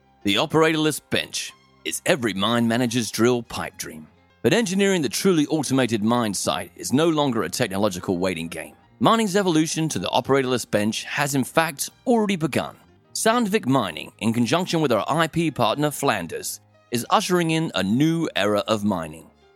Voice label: English Australia